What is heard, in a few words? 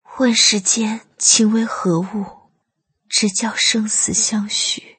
girl; shaoxiadiablo